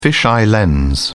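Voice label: "fisheye lens", British English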